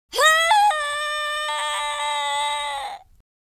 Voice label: scared, Drill Sergeant; speech